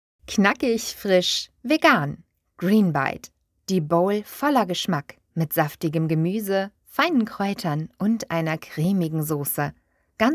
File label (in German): Werbung - Lebensmittel; voiceart